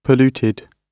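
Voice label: "polluted", British English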